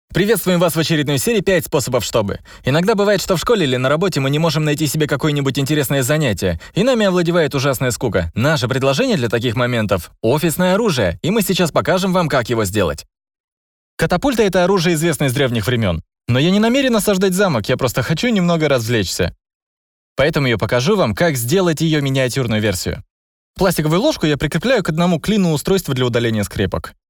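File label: Russian, male; lektoring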